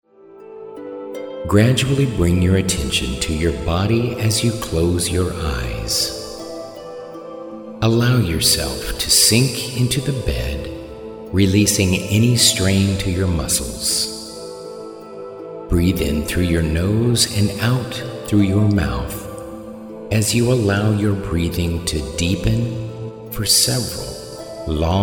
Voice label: Meditation Relaxation Affirmations and Hypnosis